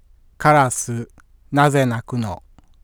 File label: input speaking male